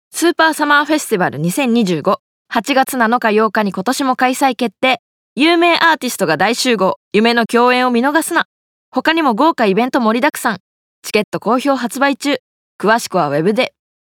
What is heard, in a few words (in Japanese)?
イベント告知ナレ